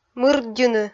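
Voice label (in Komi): "мырддьыны", Komi, female; kpv audio dict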